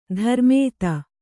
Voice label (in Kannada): "ಧರ್ಮೇತ", Kannada